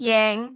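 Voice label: "jeng5", Cantonese; microsoft Hiu Maan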